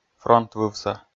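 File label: "фронтвывса", Komi, male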